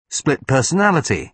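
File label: "split personality", British English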